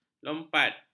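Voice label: "lompat", Malay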